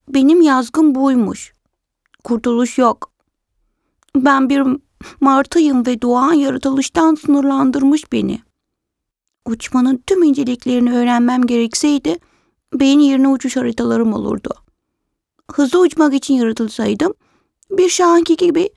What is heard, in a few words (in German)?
Tuerkische sprecherin voice tuerkisch